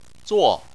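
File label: "zuo" fourth tone, chinese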